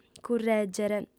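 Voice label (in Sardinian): "currègere", female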